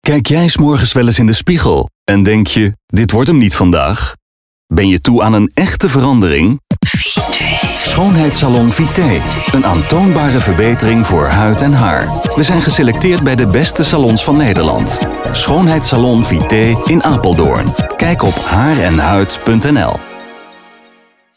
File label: soft sell beauty